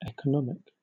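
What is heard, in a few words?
"economic", British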